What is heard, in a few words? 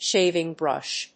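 "shaving brush", English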